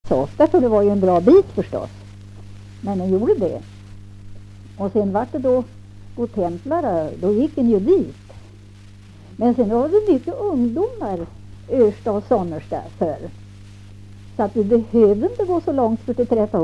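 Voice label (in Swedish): Kumla Närke, dialekter; Folkminnen; Svenska sagor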